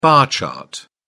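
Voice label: "bar chart", British English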